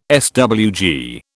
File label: "SWG", British